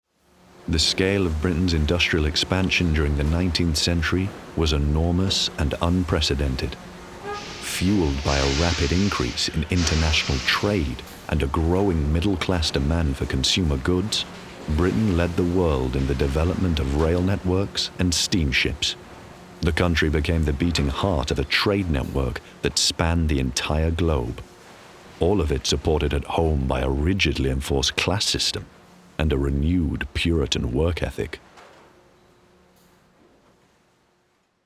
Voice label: history doc narration

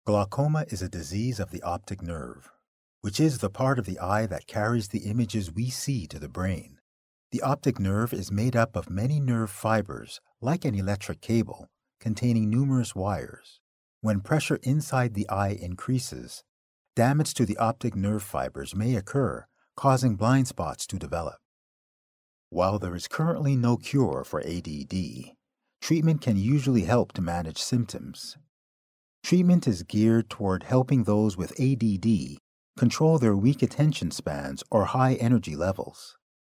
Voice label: Medical Narration

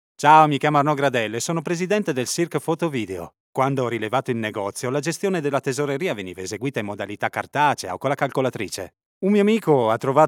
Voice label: ITA COMMERCIAL CASE HISTORY; AGICAP

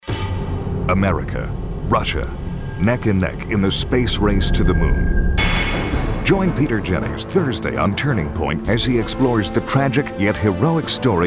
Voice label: Promo

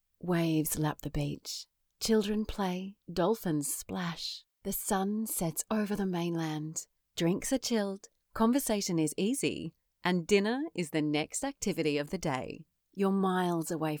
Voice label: Commercial sample

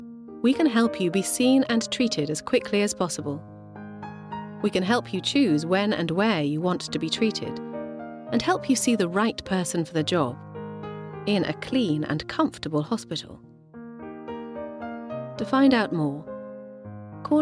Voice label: Commercial Compilation

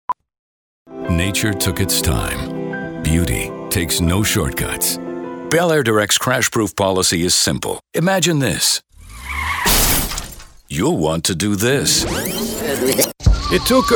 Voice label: Vox Commercial

